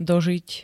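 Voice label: "dožiť", Slovak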